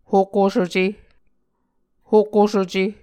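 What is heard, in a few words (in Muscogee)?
"hokosuce", Muscogee word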